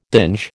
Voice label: "dinge", American